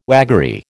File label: "waggery", American